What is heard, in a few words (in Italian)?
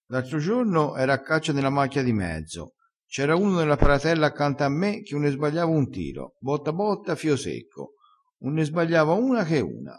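"botta botta", vocabolario dialettale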